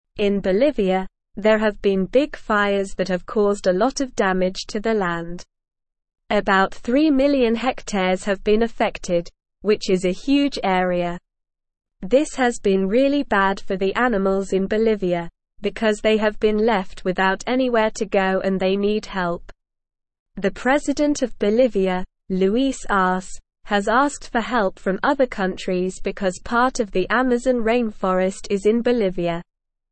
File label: Upper Intermediate SLOW Reading, English; English Newsroom; Bolivias Wildfires Locals Care for Animals Seek Int